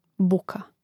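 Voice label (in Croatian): "buka", Hrvatski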